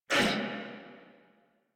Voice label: Pain Crewmen, en